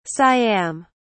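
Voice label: "siam", British English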